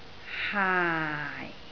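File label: "haai4", Cantonese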